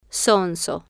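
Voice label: "zonzo", Spanish, female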